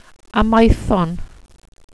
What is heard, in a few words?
"amaethon", welsh pronouciations; Wales/welsh names